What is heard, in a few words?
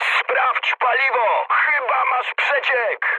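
tank damaged, mood high, Polish speech; War Thunder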